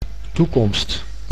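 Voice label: "toekomst", Dutch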